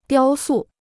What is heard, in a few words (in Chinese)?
"雕塑", Chinese